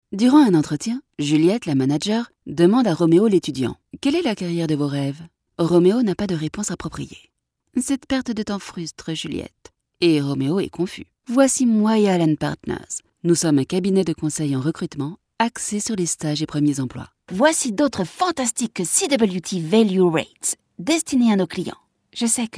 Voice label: Fransizca Kadin; seslendirme

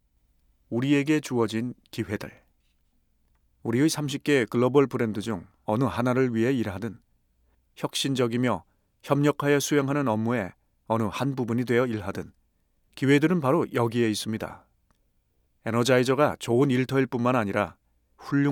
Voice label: Korece Erkek

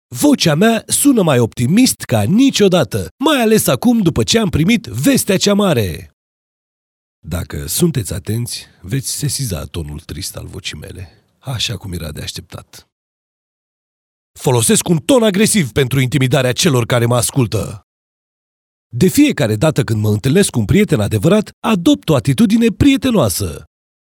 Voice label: Romence Erkek, romence seslendirme; erkek sesler

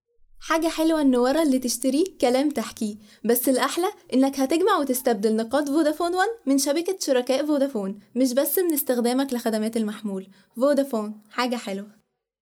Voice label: Arapca Kadin Misir Arapcasi, seslendirme; kadin sesler

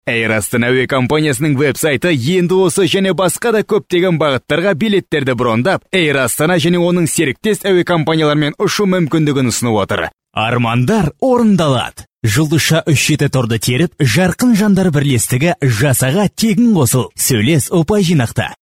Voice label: Kazakca Erkek; seslendirme

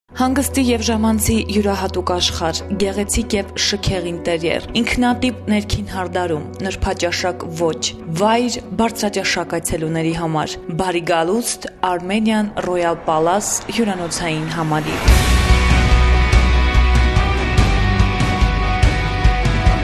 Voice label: Ermenice Kadin; seslendirme

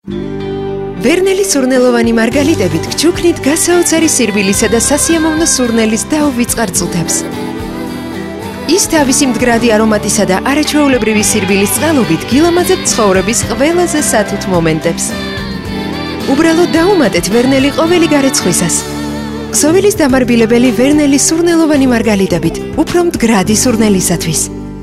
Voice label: Gurcuce Kadin; seslendirme

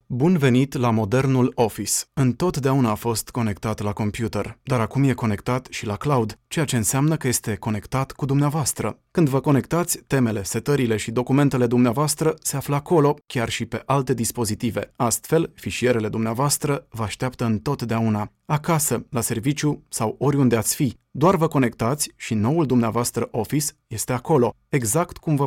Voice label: Romence Erkek, seslendirme; erkek sesler

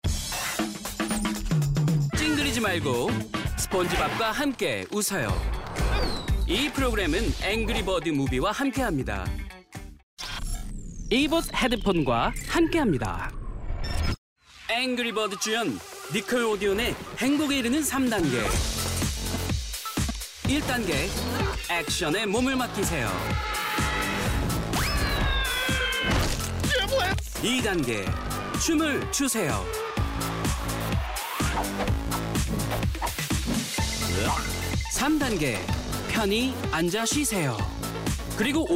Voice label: Korece Erkek

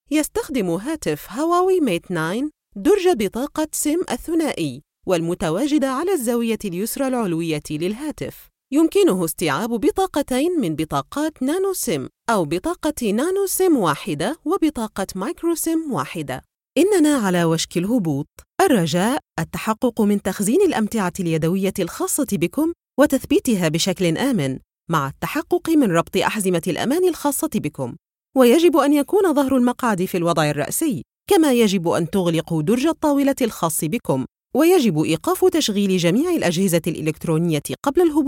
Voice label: Arapca Kadin Klasik Arapca, seslendirme; kadin sesler

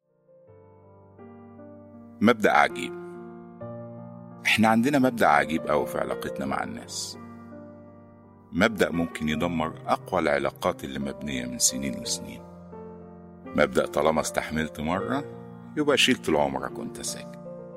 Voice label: Arapca Erkek Klasik Arapca; seslendirme; erkek sesler

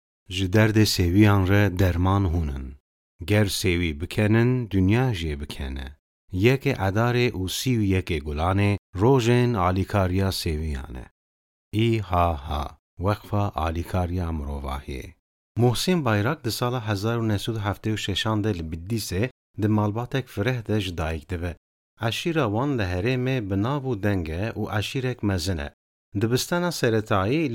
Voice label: Kurtce Erkek Kurmanci, seslendirme

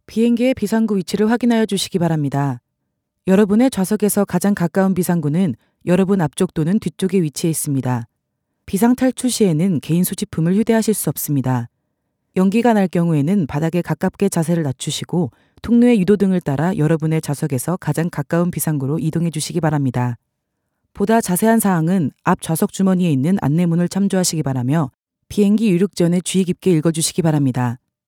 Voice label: Korece Kadin; korece seslendirme; kadin sesler

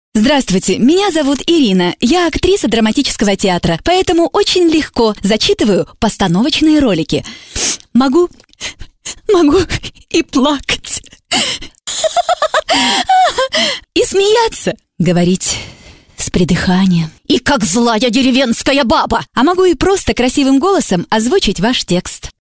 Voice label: Rusca Kadin, seslendirme; kadin sesler

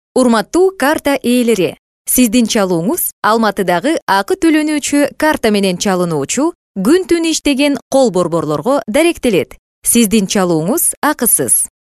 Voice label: Kirgizca Kadin

